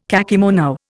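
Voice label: "kakemono", Indian English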